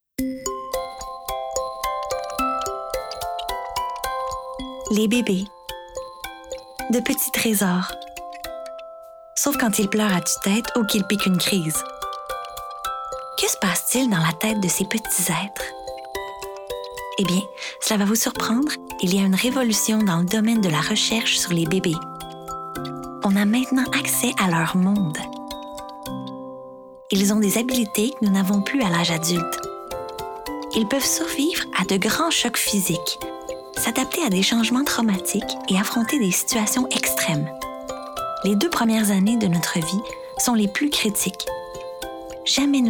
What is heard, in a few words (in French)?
Narration